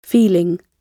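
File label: "feeling", British English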